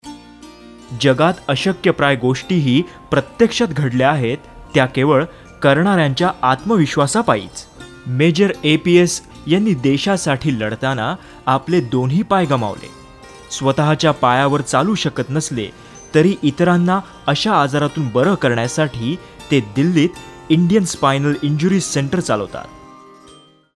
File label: Marathi Male